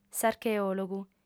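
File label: "s'archeòlogu", Sardinian female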